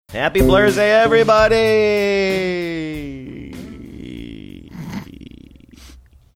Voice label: saying happy blursday everybody long tail